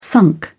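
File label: "sunk", English, uk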